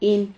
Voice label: "hin", Silabas

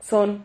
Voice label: "xon", Silabas

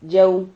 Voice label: "llau", Silabas

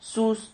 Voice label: "sus", Silabas

